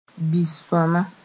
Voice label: "bi-sõama", Mooré

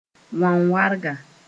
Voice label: "wanwarga", Mooré

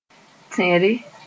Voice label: "tẽre", Moore

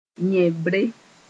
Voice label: "yẽbre", Mooré; foundation

